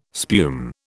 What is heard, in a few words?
"spume", British